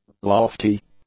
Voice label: "lofty", English; adjectives